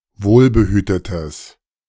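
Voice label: "wohlbehütetes", German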